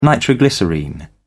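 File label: "nitroglycerine", British English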